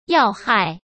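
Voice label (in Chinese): "要害", Mandarin Chinese; hsk; chinese-flashcards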